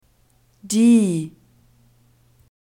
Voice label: "die", German